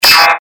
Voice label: evil pain; CAC ASSETS